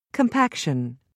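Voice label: "compaction", British English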